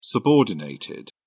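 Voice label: "subordinated", British English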